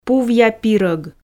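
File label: "пувъя пирог", Komi, female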